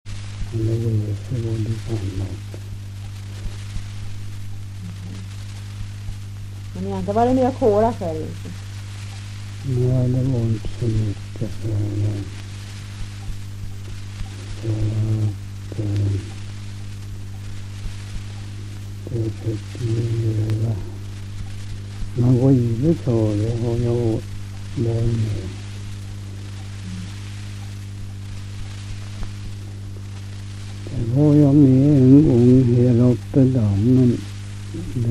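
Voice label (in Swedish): Ramundeboda Närke, dialekter, Svenska sagor; Folkminnen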